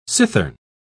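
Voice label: "cithern", British English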